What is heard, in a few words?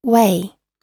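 "weigh", English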